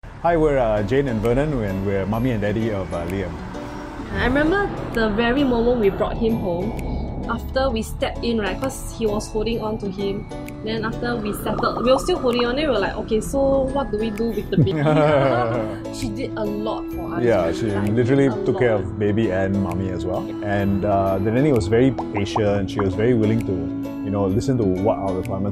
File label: Asian English Celeb Couple Interview